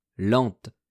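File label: "lente", French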